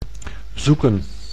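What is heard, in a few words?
"zoeken", Dutch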